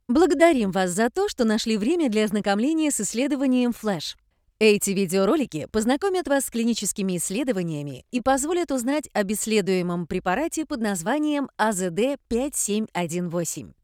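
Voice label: Russian, female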